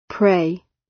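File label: "prey", British; Flora and fauna, agriculture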